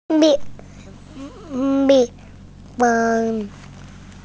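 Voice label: "mi4 feng1", Mandarin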